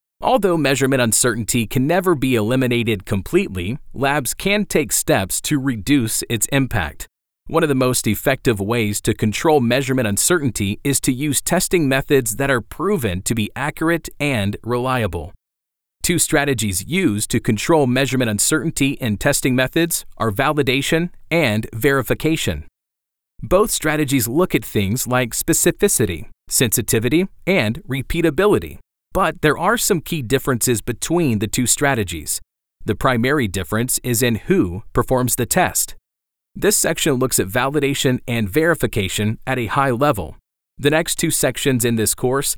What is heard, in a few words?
e Learning